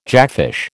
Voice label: "jackfish", American